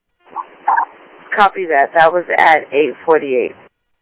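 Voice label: Light Rail Security Light Rail, scanner audio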